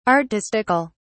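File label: "artistical", English, British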